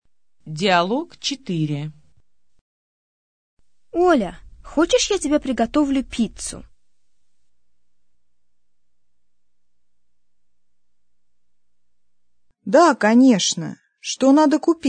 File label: dialog, russian